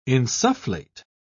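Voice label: "insufflate", British English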